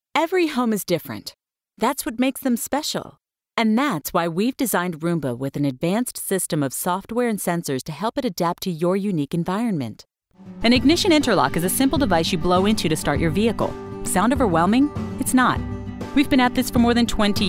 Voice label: explainer